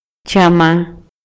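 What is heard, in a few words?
Maya, male; MUNDO MODERNO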